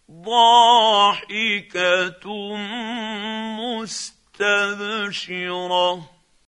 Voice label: quran, Hussary, tafseer; Abasa